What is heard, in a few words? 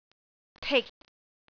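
"tik6", Cantonese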